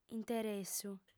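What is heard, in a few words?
"interessu", Sardinian, female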